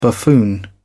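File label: "buffoon", British English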